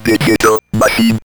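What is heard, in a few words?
ROBVOX, robot voice; E-Lab; X Static Goldmine 1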